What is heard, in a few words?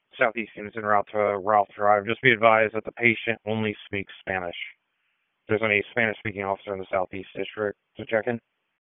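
Police Southeast District Dispatch; Raleigh; wake p25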